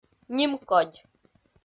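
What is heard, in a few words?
"нимкодь", Komi-Zyrian, female; kpv audio dict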